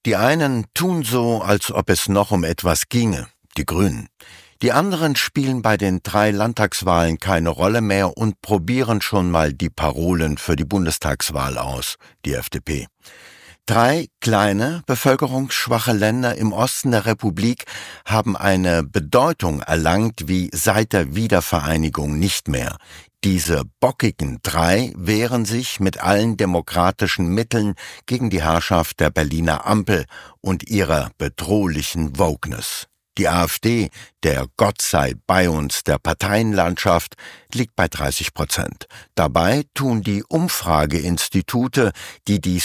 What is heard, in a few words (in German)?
Kommentar; Kontrafunk Aktuell; kommentiert die desaströse Lage